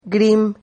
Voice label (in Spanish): "grim", Silabas